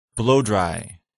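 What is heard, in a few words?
"blow-dry", English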